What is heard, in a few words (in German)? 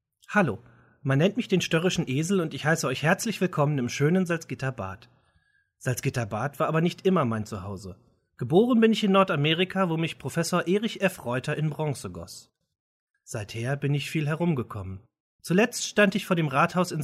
audioguide